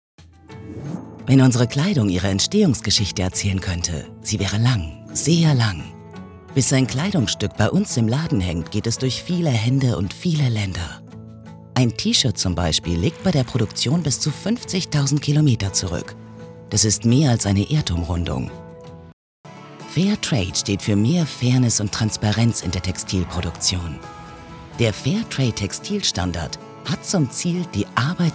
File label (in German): Imagefilm, Sprecherin; Fairtrade